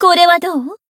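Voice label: Voice attack, Japanese; toova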